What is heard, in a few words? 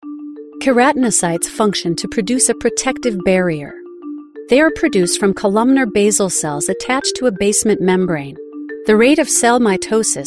Elearning